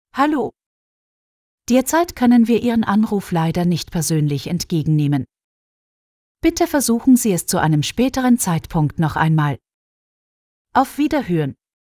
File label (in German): innerhalb Hang Up FEMALE